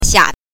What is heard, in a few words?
"xia", pinyin